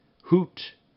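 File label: "hoot", English; RhymeTest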